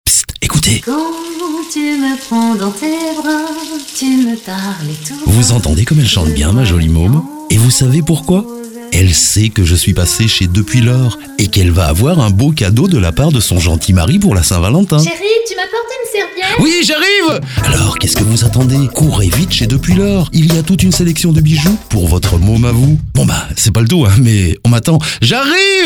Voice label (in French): radio pub spot